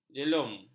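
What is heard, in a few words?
"jelum", Malay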